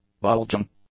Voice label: "bulging", English; adjectives